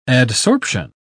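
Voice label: "adsorption", British English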